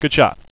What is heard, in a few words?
"good shot", radio bot; cstrike